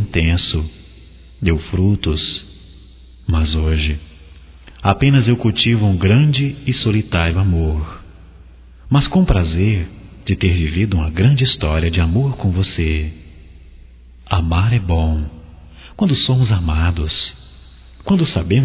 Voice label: SAUDADE ROMANTICA, Telemensagens, masc

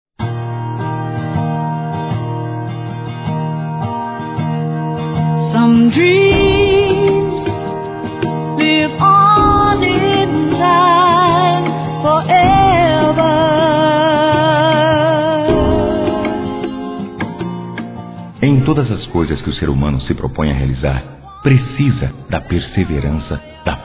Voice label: Formatura Geral Masculino; Telemensagens 2017; Formaturas

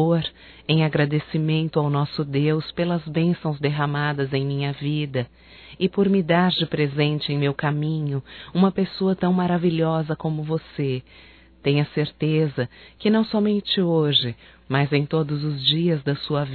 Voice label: NATAL ANO NOVO SOGRO, fem; Telemensagens 2017

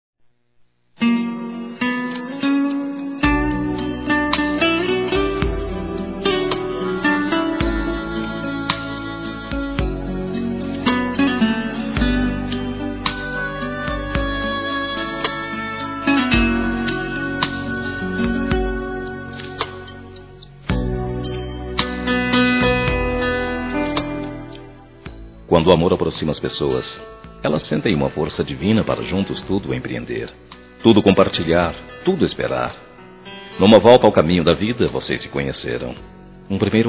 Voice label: Aniversário Casamento Geral Masculino; Telemensagens 2017; Aniversários

